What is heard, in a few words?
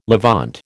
"Levant", American